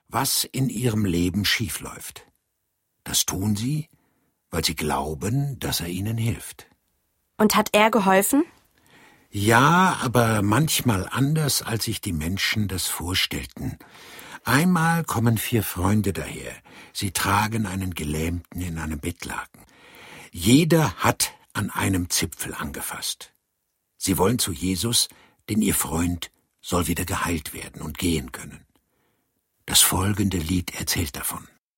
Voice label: Erzaehler

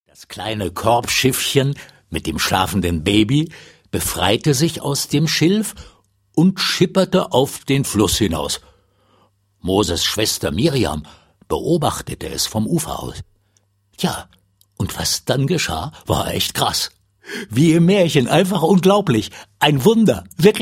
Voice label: Erzaehler

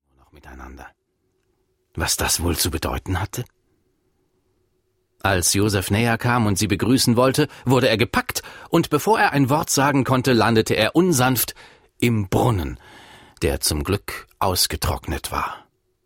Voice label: Erzaehler

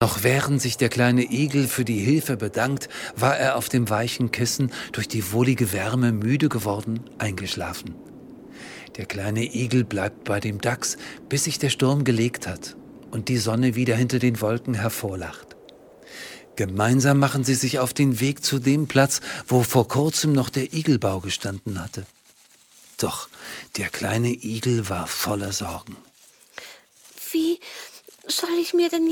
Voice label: Hoerspielszene

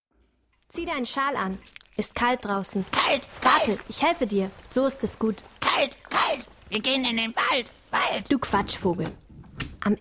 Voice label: Hoerspiel Szene

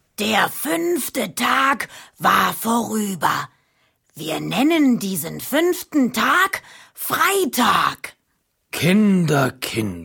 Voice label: Erzaehler

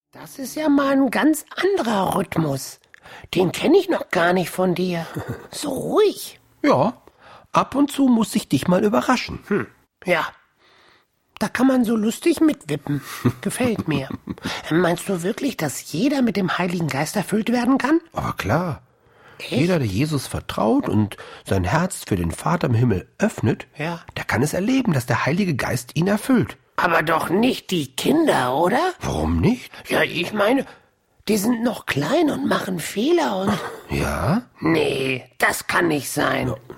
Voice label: Dialog

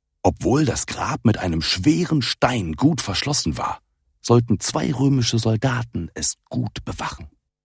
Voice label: Erzaehler; Das Grab

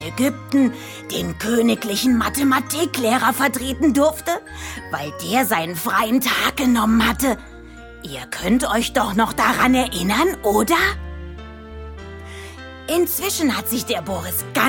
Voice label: Intro Erzaehler

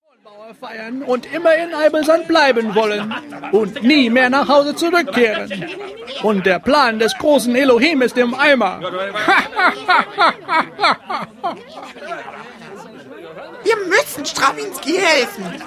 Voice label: Erzaehlung

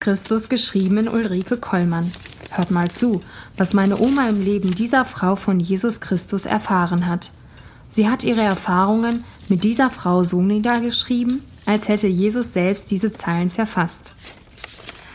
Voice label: Hoerspiel; ein brief von jesus

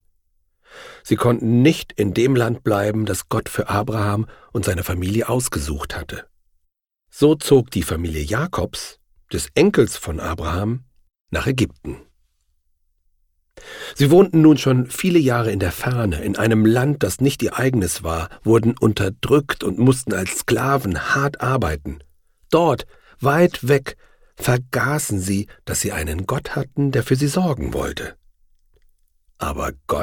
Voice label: Erzaehlung

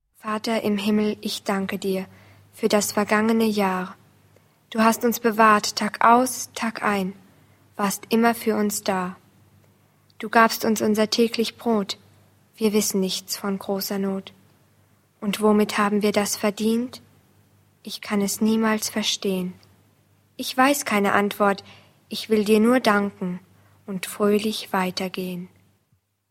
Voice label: Sprecherin Neujahrsgebet

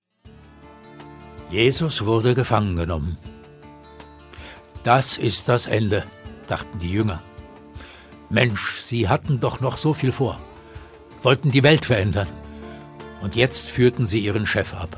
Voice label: Erzaehler

